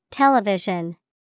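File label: "Television", English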